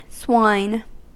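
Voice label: "swine", American English; pronunciation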